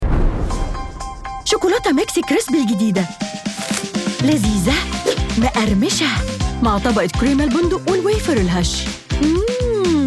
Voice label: Commercial Egyptian